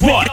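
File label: "what", male voice sample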